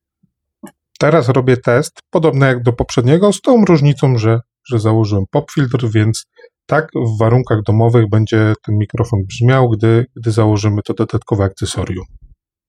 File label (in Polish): probka redukcja szumow pop filtr; Mozos MX3 Dual